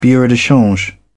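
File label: "bureau de change", British English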